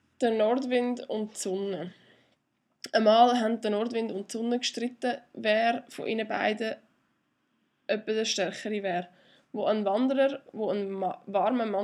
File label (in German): Nordwind Dialekt, intonation